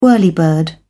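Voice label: "whirlybird", British English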